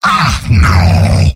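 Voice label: "no", robot; demoman; mvm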